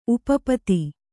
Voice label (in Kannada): "ಉಪಪದ", Kannada